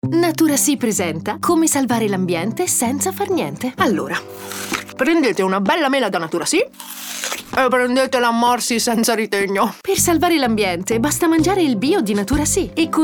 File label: Spot; NaturaSi